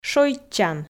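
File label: "шойччан", Komi, female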